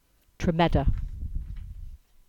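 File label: "Tremedda", English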